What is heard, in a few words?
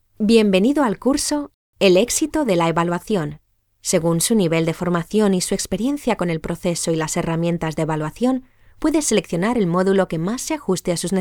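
European Spanish Corporate; GoLocalise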